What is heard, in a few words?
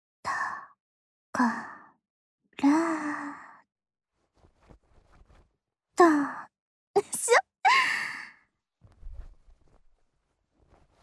Whisper